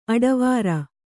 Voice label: "ಅಡವಾರ", Kannada

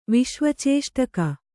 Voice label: "ವಿಶ್ವಚೇಷ್ಟಕ", kannada

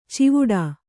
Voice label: "ಚಿವುಡಾ", kannada

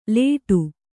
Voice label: "ಲೇಟು", Kannada

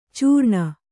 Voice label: "ಚೀರಣೆ", Kannada

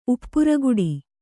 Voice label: "ಉಪ್ಪುರಗುಡಿ", kannada; uppura gudi; noun

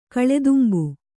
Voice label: "ಕಳೆದುಂಬು", kannada

